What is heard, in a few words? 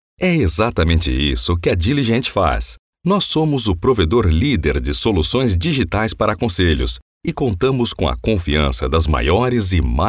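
male Brazilian Portuguese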